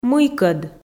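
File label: "мыйкӧд", Komi, female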